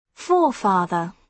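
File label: "forefather", British English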